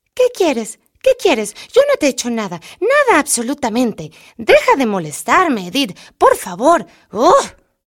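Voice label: Latin American Spanish narrative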